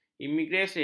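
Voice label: "imigresen", Malay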